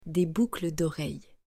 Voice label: "Des boucles doreilles", French

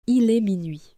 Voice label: "Minuit", French

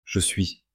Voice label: "je suis", French, male; to be verbe etre

